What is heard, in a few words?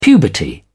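"puberty", British English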